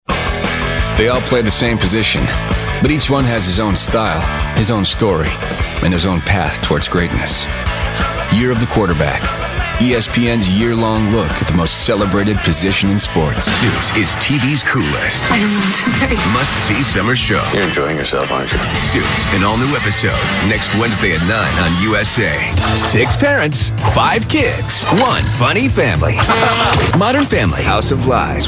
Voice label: promo

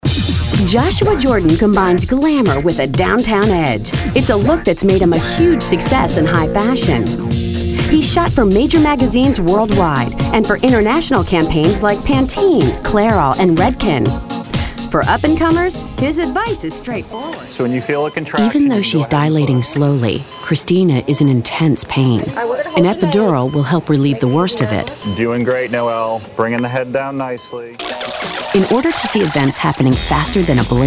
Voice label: Narration

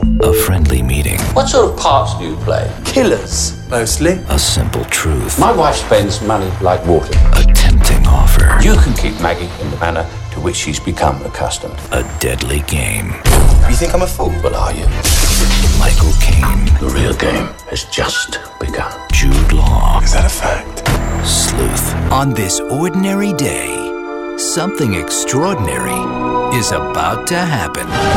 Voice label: trailer

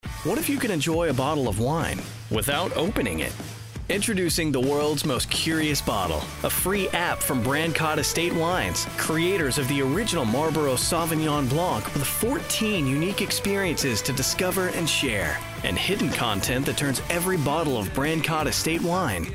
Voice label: Narration

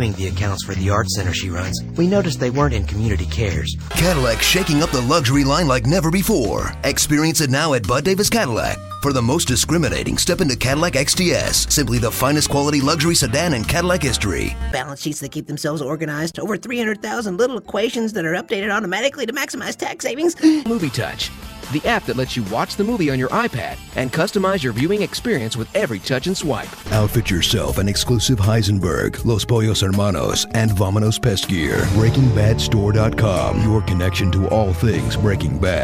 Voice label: Commercial

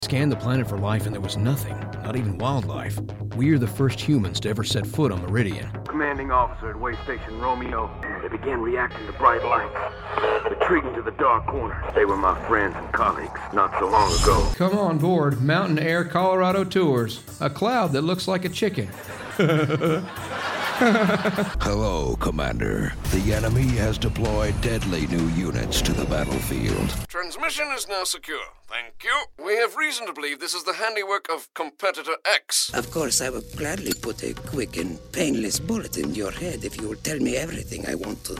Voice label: Animation Character

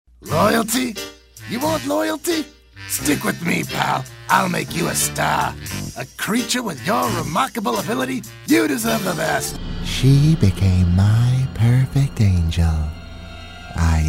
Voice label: Animation

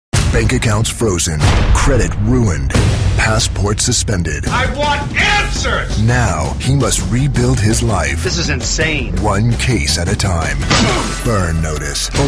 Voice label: Drama Promo

